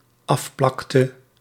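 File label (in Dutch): "afplakte", Dutch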